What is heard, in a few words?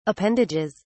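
"appendages", British English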